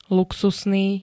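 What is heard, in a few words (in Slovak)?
"luxusný", Slovak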